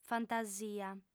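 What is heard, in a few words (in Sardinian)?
"fantasia", female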